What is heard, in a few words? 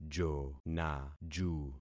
"jonaju", concat syllables; Affixing experiment